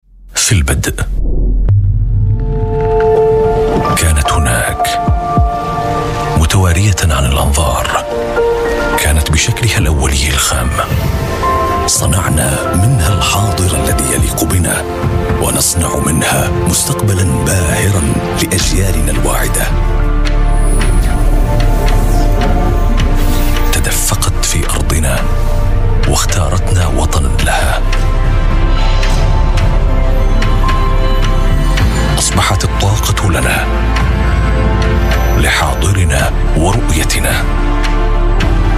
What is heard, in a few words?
arabic voice over male narrator